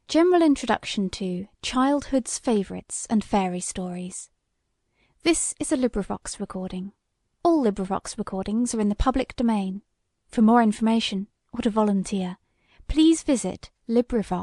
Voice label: female reading